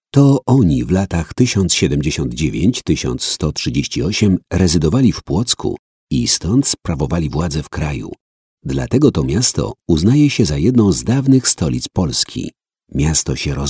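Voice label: Polish, male, narration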